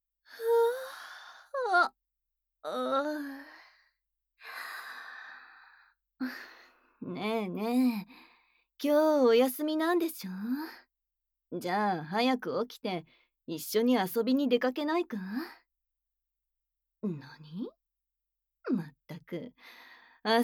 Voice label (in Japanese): アラームボイス; むらた屋